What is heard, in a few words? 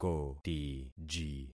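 "kotiji", concatenated syllables; Affixing experiment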